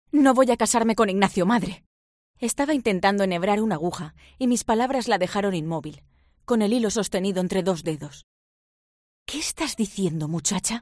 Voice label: FEMALE, audiobooks